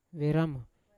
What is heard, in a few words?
"veramo" but, Yawa